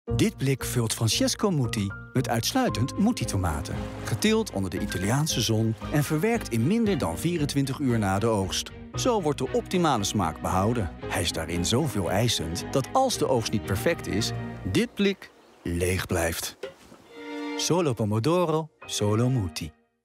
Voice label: TVC; Mutti